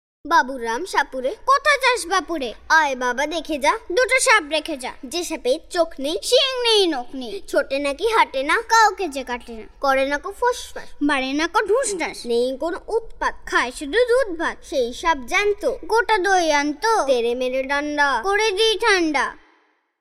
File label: Recitation